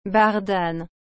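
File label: "bardane", French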